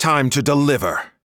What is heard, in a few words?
voice, taunt; elven mercenaries